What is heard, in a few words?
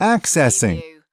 US male accessing; lynne publishing